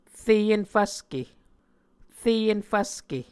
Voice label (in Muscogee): "re enfvske", muscogee